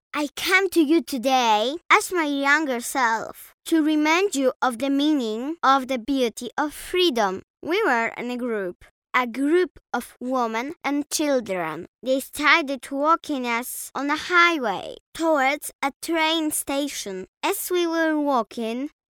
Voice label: polish child voiceover english recording locutora infantil polaca ingles